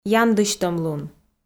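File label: "яндысьтӧмлун", Komi, female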